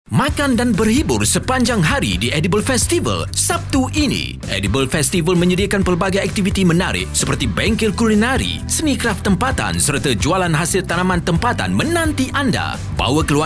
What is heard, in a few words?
COMMERCIAL EDIBLE FESTIVAL